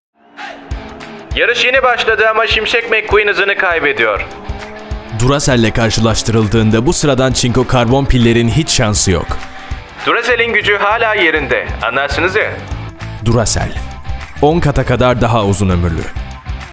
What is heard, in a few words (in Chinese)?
土耳其语男 广告 激情